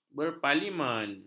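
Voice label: "berparlimen", Malay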